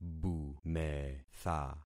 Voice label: "bumetha", concat syllables; Affixing experiment